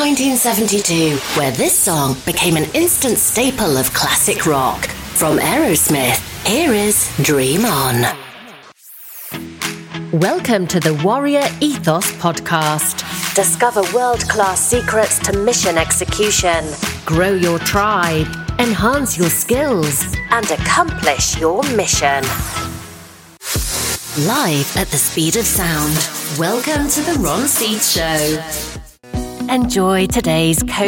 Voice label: continuity radio, voiceover

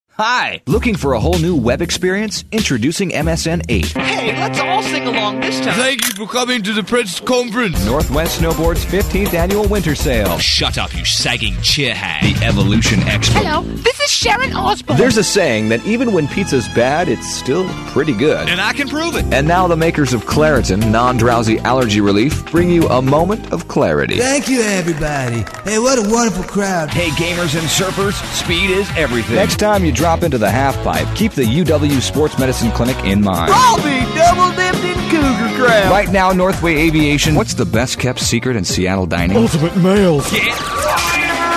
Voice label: american montage

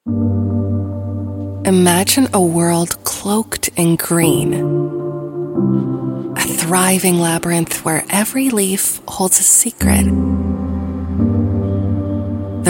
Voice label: American voice, documentary narration

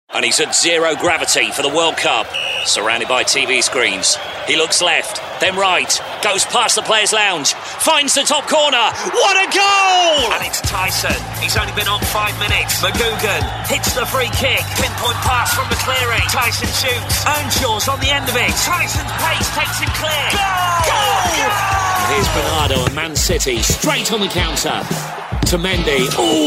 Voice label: footy commentator

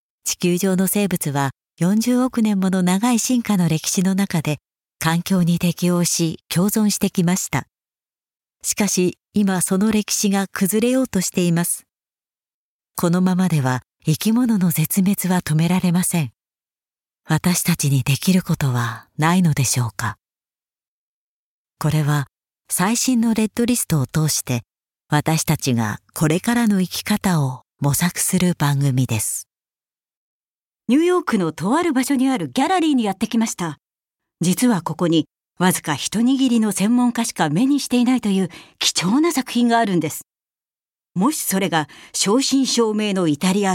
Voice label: japanese voice audiobook

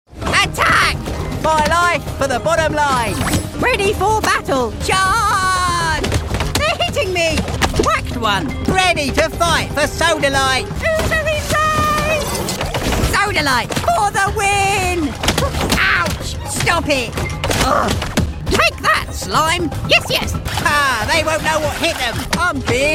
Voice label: squeaky toon bark lines

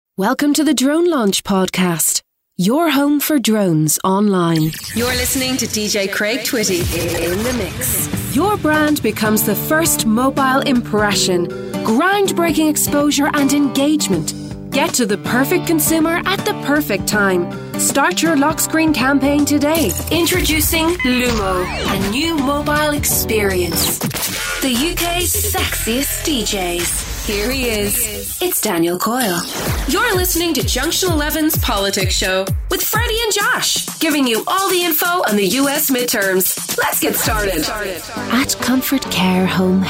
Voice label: irish voiceover continuity radio

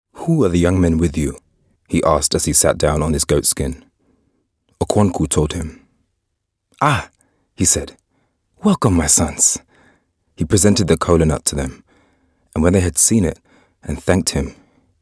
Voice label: london voice audiobook

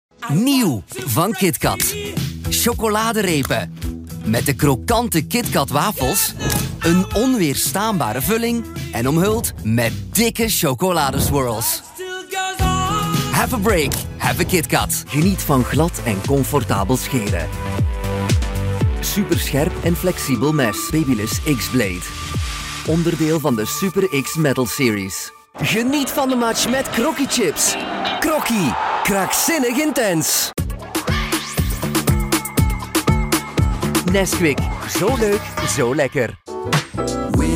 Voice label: Flemish voiceover commercial

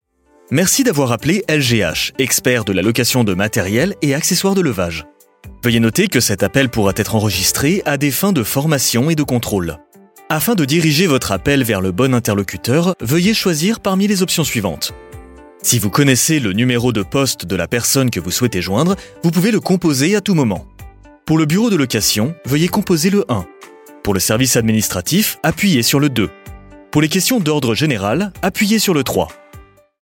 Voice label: french male telephone

